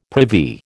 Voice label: "privy", American